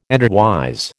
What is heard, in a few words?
"endwise", American